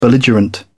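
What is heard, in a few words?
"belligerent", British English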